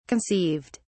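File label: "conceived", British English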